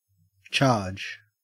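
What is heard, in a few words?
"charge", Australian English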